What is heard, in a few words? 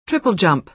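"triple jump", American English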